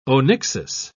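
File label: "onyxis", British English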